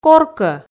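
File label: "коркӧ", Komi, female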